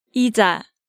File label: "Ija", Korean